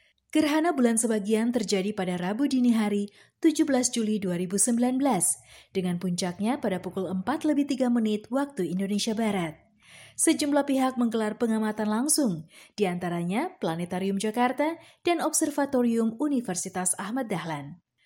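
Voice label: Indonesian, General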